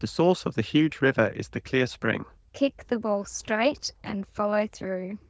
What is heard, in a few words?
lpcnet codec